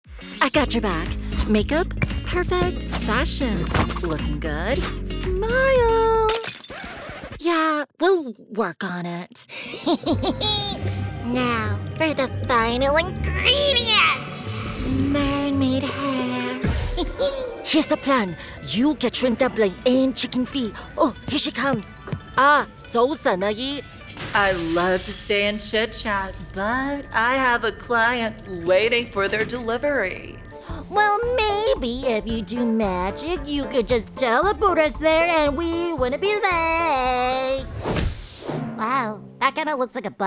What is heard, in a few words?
Animation